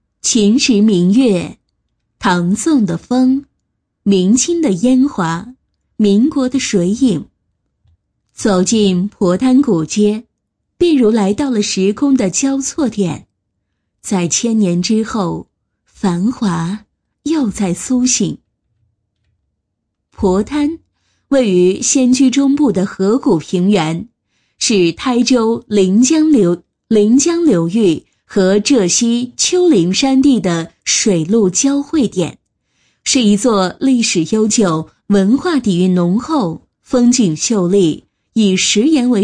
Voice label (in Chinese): 宣传片, 女; 皤滩